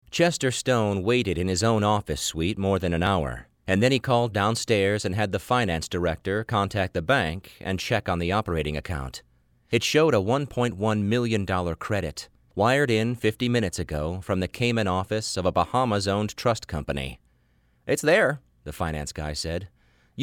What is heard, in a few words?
english male